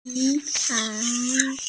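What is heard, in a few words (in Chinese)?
"nv3hai2", Mandarin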